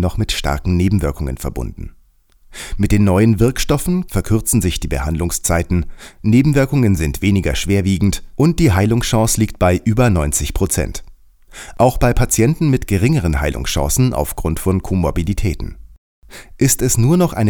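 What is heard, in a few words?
eLearning Hepatitis C; OmniaMed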